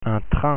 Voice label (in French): "train" French; pronunciation